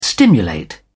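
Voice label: "stimulate", British English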